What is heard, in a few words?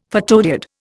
"photodiode", Indian; Dictionary